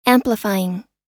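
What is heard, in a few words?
"amplifying" US English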